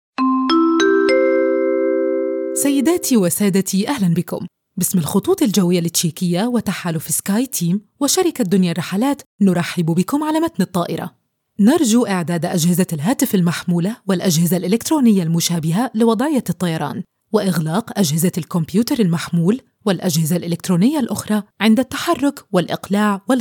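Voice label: Flight Passenger Announcement, Welcome, Friendly, Warm, Welcoming; IVR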